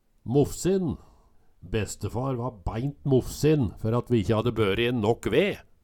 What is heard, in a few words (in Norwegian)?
moffsin, Numedalsmål